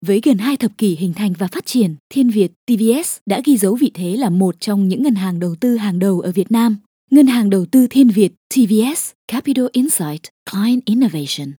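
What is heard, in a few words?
vietnamese corporate videos, female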